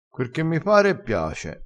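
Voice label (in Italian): "quer che mi", vocabolario dialettale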